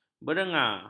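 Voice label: "berenga", Malay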